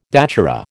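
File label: "datura", American English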